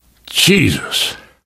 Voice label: reaction negative, survivor voice